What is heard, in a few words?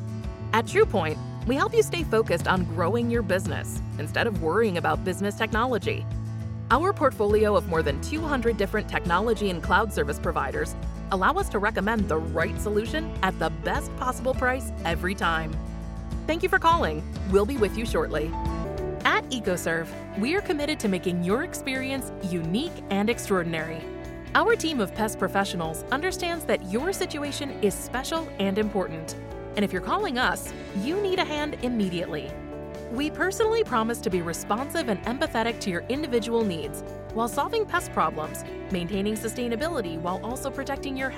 Message On Hold